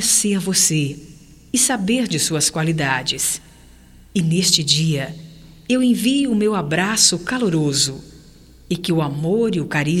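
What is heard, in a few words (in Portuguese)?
AGRAD PELO PRESENTE feminino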